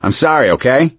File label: sorry, survivor voice